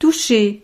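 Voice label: "toucher", French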